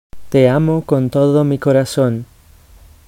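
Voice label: pronunciation "te amo con todo mi corazon", Spanish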